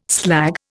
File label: "slag", Indian